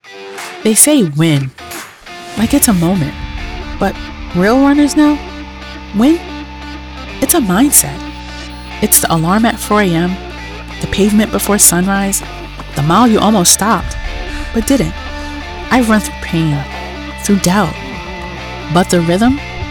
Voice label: Commercial; Nike; Santa